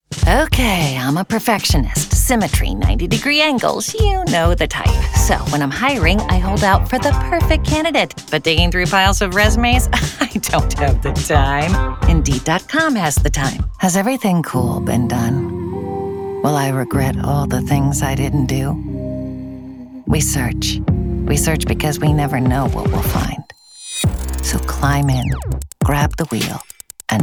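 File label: commercial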